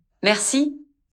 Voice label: thank you french; turkey dash